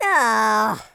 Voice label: "oops", Madchester